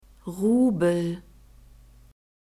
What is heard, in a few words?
"Rubel", German; masculine